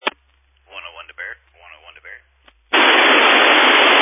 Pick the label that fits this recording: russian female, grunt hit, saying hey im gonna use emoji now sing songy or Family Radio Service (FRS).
Family Radio Service (FRS)